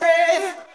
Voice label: "ay", voice